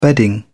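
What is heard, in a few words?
"bedding", English, British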